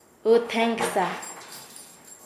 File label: "espiar", Nasa Yuwe; paez